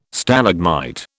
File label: "Stalagmite", British